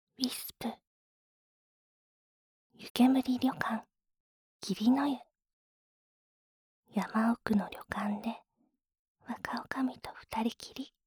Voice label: タイトルコール, ASMR

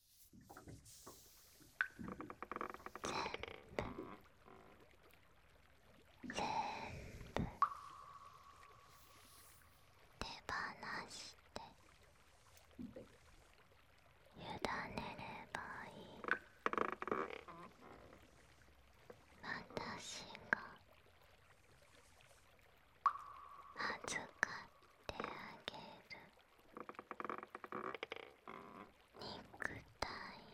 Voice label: 耳舐めと添い寝【BGMなし】, ASMR

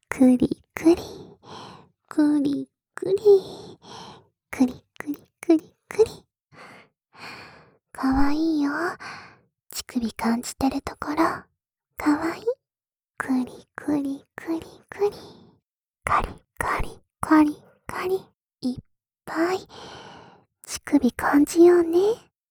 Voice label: 耳元射精煽り 右耳; 副会長

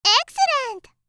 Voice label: "excellent!", 音ゲー風

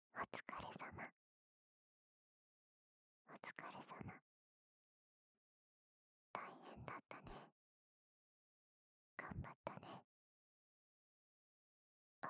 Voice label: 囁き 右耳 お疲れ様 頑張ったね; 右耳ver

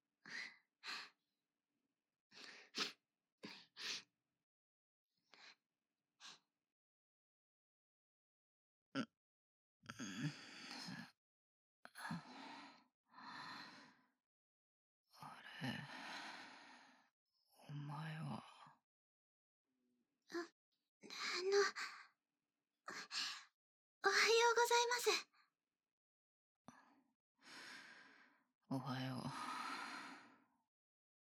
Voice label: 風邪ひくだろ…【BGMなし】, ASMR

